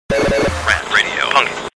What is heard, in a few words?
Promo Punk; Rant Radio Punk; RantMedia; Station Promos-Listener Submitted